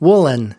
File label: "woolen", us